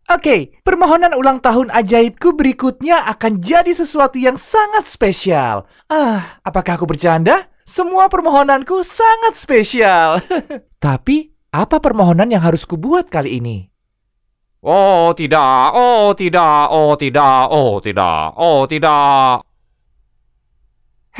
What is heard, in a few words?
indonesian animation